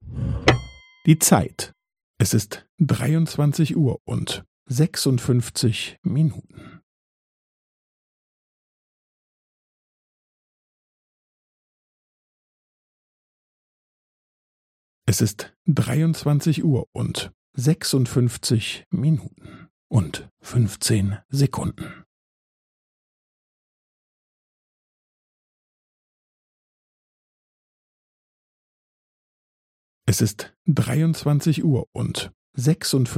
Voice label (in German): Zeitansage 23 56 Uhr